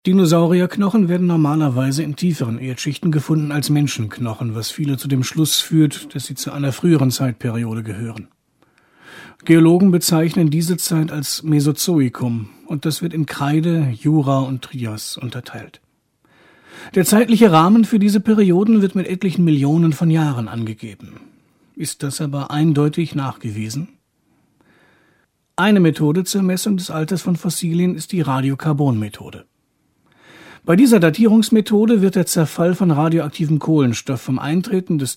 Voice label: Kommentar Freundlich

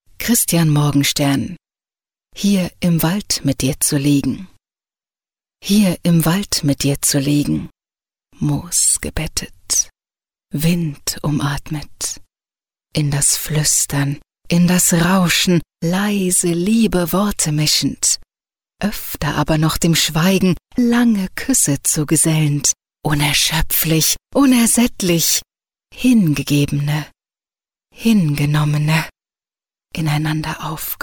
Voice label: Schauspiel Sanft

